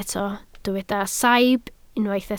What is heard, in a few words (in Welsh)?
"saib" Welsh